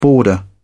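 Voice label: "boarder", British English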